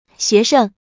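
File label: "xuesheng", Mandarin Chinese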